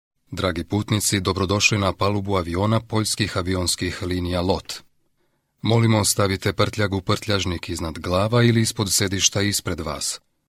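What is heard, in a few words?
Serbian Serbia male narrative; serbian voice over talent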